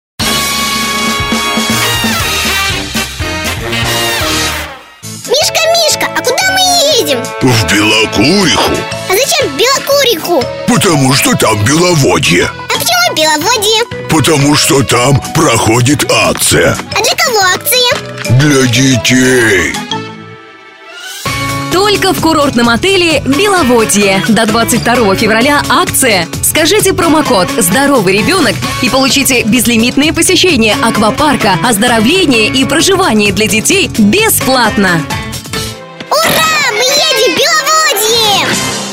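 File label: girl 9 years old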